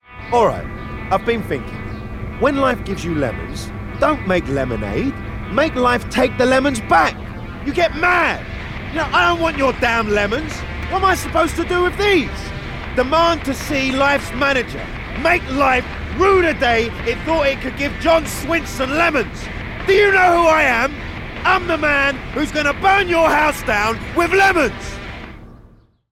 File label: Animation